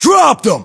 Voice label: inform killfirm infantry, battlechatter, English, US